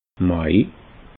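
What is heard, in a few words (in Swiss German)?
"mai", Alsatian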